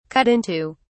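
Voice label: "cut into", British English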